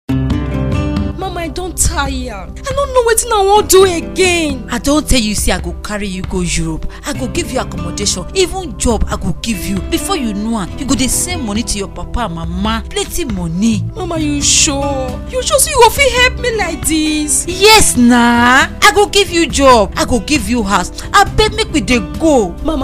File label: awareness advert pidgin